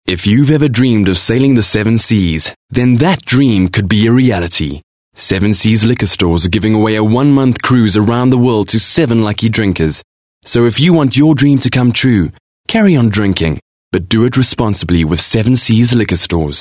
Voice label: English softsell